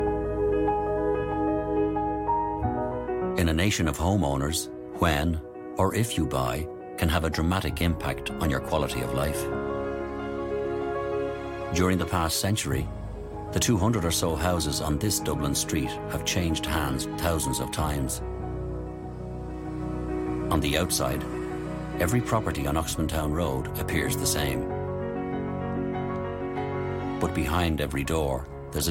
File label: english irish documentaries; Warm, authentic Irish voice with depth, clarity and versatility